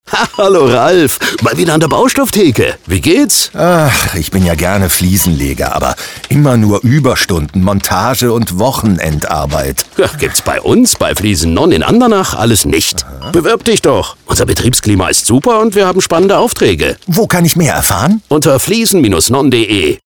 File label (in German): Funkspot Recruiting; ROCKLAND